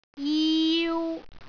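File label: "jiu3", Cantonese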